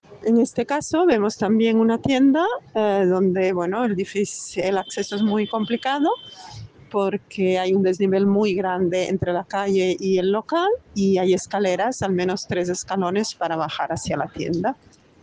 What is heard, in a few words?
Voice message